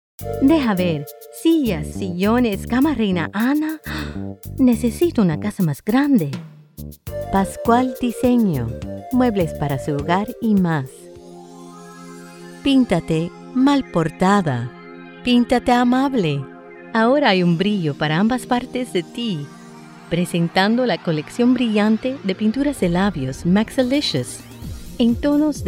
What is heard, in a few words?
Spanish Commercial